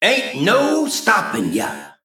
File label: Male Mech Ahead